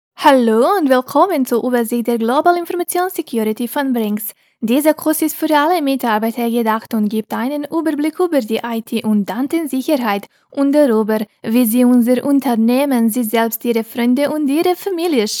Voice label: GERMAN